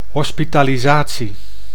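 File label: "hospitalisatie", Dutch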